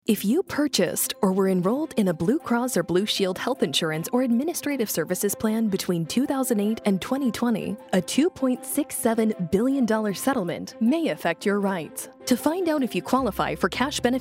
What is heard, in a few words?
english; blue cross blue shield class action settlement